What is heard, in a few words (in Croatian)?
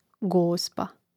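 "gospa", hrvatski; mreznik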